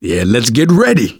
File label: ask ready, survivor voice manager; Left 4 Dead 2; left4dead2 dlc2